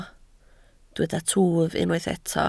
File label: "twf", Welsh